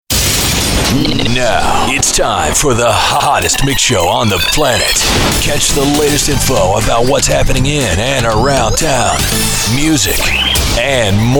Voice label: CHR-TOP-40 Radio; radio's bygone eras ('91-'99)